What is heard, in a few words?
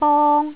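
"bong1", Cantonese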